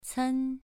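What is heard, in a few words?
"cen" Mandarin, second tone, pronunciation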